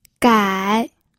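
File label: "gai", pinyin, third tone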